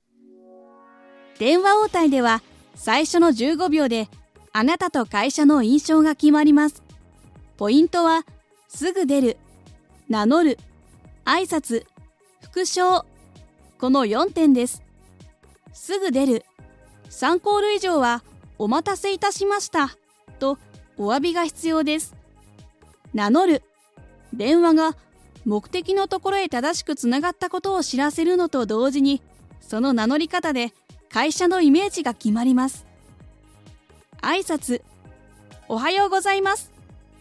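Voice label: Japanese, E-learning; GoLocalise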